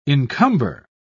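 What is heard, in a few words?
"incumber", English, British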